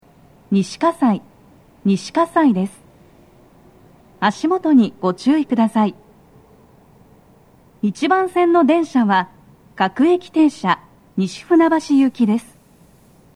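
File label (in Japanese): 到着放送, 女声, Panasonic天井型での収録; 放送は「各駅停車 西船橋行き」です。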